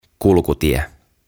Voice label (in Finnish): "Kulkutie" "Path"